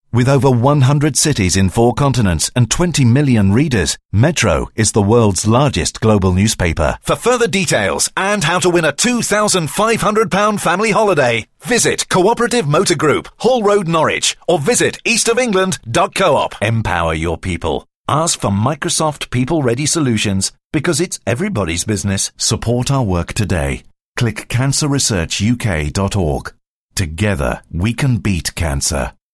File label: Corporate, UK